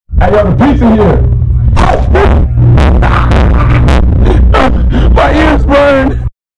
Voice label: ayo the pizza here bass boosted

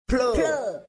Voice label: pluh delayed pitched

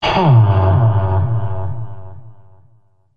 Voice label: villager reverb loud; minecraft

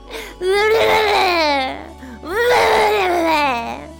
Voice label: tired blelele

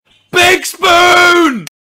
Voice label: yelling big spoon; wilbur soot

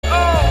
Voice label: voice cracking

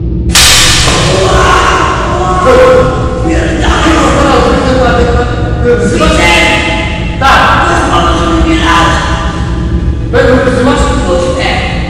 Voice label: guy talking in tunnel

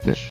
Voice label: old guy saying fish

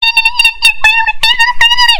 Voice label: teacher noise

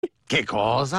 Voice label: speaking italian; family guy

